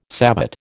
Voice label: "savate", American

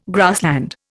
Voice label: "grassland", Indian

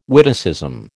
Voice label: "witticism", American

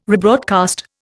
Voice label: "rebroadcast", Indian

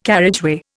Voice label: "carriageway", Indian

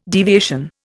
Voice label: "deviation", Indian

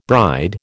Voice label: "bride", American

